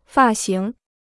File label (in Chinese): "发型", Chinese